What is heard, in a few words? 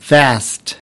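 "vast", English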